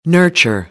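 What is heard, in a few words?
"nurture", American English; AmericanBritish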